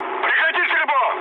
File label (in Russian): player friendly fire, mood low, speech ru